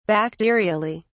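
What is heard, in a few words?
"bacterially", British English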